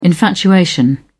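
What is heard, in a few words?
"infatuation", British English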